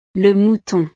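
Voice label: "le mouton", French; navidad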